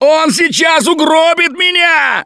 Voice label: grabbed by charger, russian, Survivor Voice; Gambler